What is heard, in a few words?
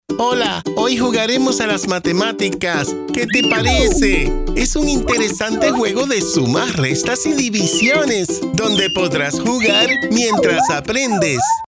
spanish latin american e learning